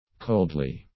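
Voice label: "coldly", English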